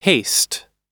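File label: "haste", English; oxford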